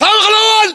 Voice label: location firstfloor generic, localized english battlechatter